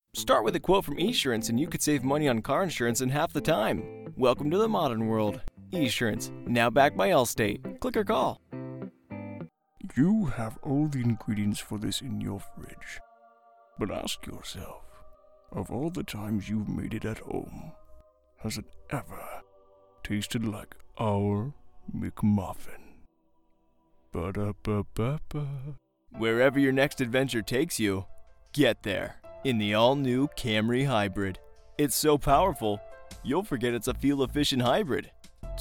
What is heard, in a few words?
commercial, voice over